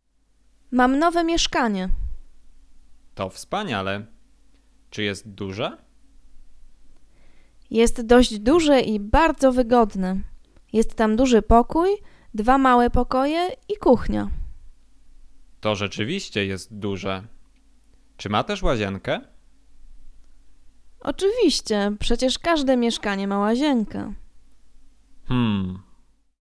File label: conversation; lektorek; firstyear